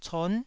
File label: "con9", taiwanese